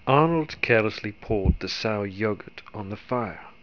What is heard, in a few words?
RP r